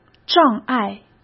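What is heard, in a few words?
"障碍", Mandarin Chinese; hsk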